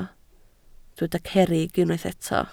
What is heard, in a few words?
"cerrig", welsh